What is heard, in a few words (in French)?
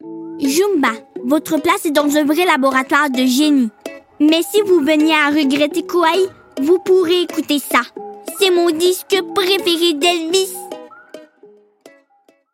voix Doublage